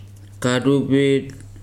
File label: "mens house", Weri